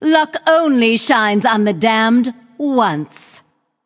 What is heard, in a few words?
taunt directed; apollo; Smite; Hera